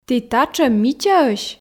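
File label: "ті татшӧм мичаӧсь", Komi, female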